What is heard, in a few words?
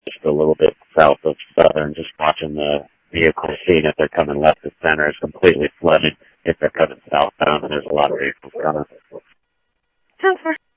Tempe Police, scanner; SimulcastF-Tempe; TEMPE PD A04